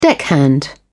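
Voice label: "deckhand", British English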